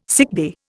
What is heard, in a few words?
"sickbay", Indian